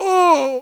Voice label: human old die; wesnoth